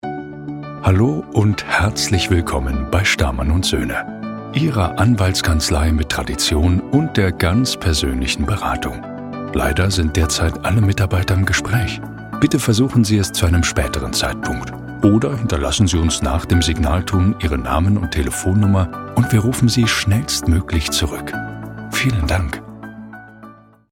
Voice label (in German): Hoerprobe Telefonansage; Stahrmann und Soehne